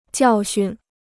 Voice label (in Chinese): "教训", Chinese